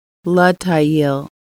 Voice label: traveling upstream, Lushootseed

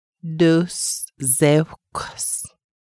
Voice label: "leader", Lushootseed

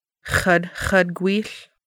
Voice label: "stroller", Lushootseed

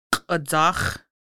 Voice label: large intestine, Lushootseed

